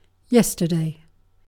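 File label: "yesterday", British English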